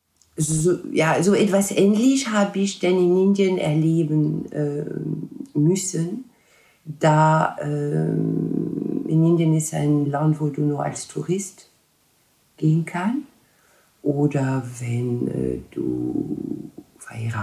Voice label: franzoesisch

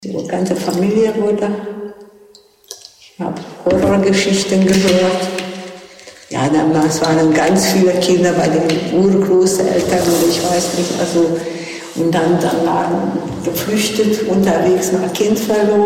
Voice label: ungarisch

